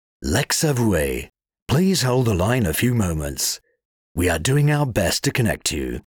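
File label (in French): Attente téléphone; Lexavouer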